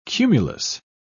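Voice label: "cumulous", British English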